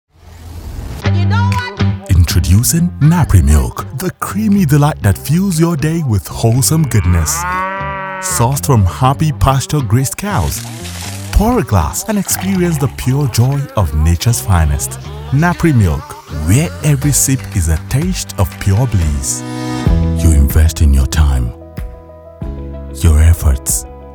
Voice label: english african commercial; Nigeria

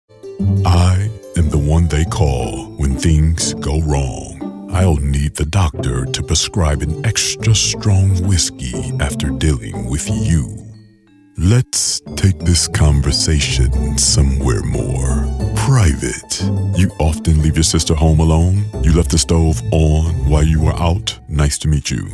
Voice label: english american impersonations

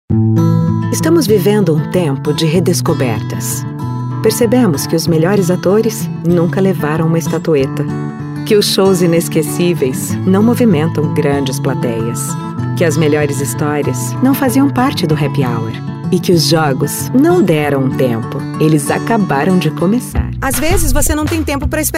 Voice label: Portuguese Brazilian commercial

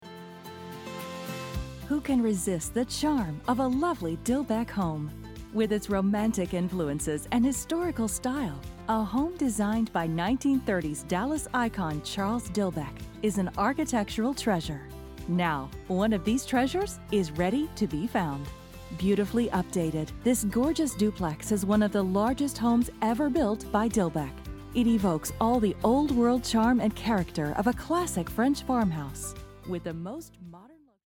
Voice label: english american real estate

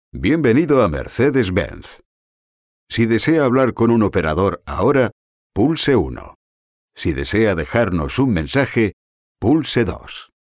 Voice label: spanish ivr

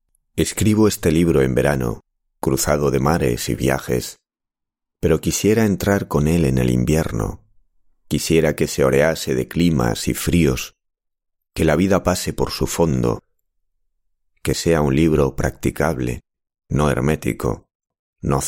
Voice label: spanish audiobooks

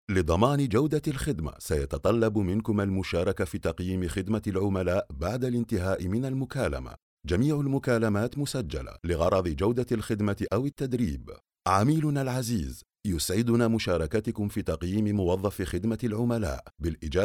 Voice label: arabic ivr

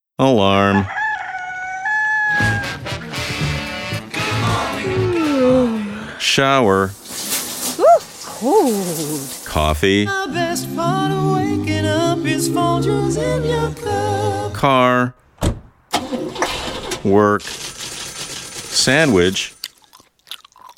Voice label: english canadian

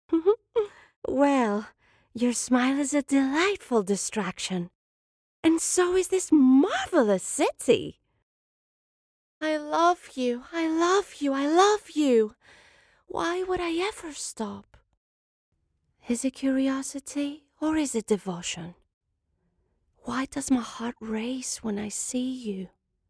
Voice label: English animation

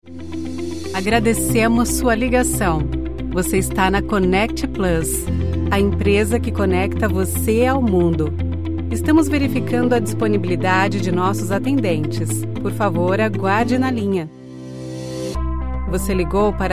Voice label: portuguese brazilian ivr; natürliche, vielseitige Stimme und einen neutralen Akzent

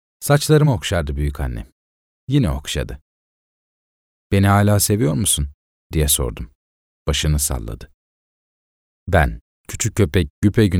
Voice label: turkish audiobooks

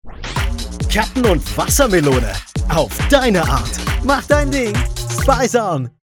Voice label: german corporate videos

